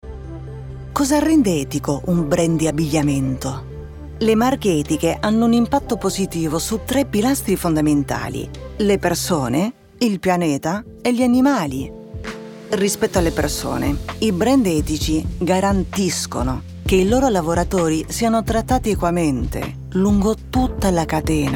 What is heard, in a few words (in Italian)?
ELearning Italian